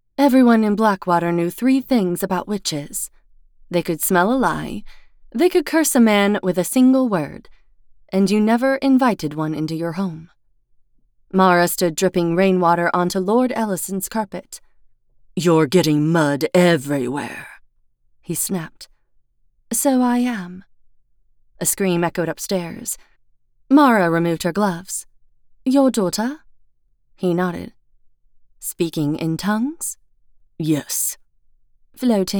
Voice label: Fantasy MF 1st Person POV